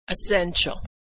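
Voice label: "essential", American English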